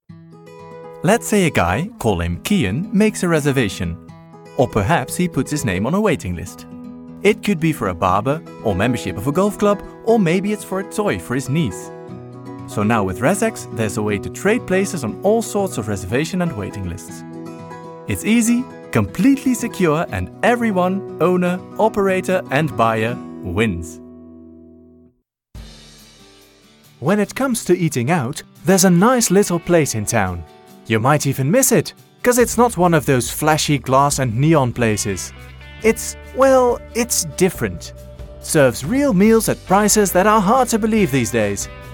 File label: English commercial